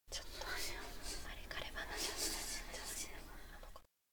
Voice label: whisper talk; anemoi